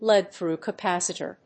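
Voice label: "lead-through capacitor", English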